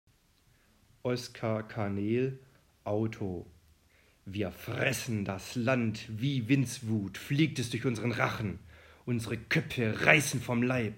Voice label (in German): gedicht sinnvolle betonung; reiselyrik